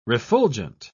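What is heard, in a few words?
"refulgent", British English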